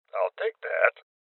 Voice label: Voices Loot Collect Male Old; rebel cops 2019